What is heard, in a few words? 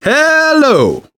scenario join, survivor voice; manager; Left 4 Dead 2; left4dead2 dlc2